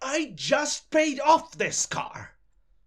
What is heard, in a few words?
start, voices angry pursuit; DanisRace